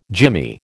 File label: "jimmy", American